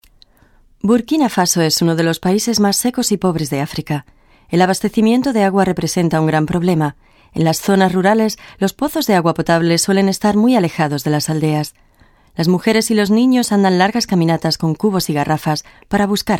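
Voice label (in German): Spanish, Kommentar Neutral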